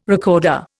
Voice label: "recorder", Indian

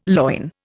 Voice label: "loin", Indian

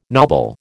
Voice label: "knobble", American

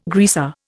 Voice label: "greaser", Indian

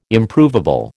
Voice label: "Improvable", American

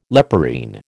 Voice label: "leporine", American

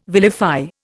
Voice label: "vilify", Indian

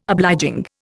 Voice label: "obliging", Indian

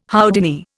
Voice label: "Houdini", Indian

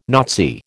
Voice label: "Nazi", American

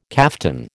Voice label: "kaftan", American

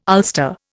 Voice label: "ulster", Indian

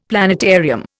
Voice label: "planetarium", Indian; Dictionary

